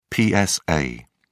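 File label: "psa", British English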